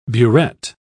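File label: "buret", British English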